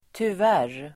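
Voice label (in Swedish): "tyvärr", Swedish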